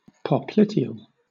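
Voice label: "popliteal", British English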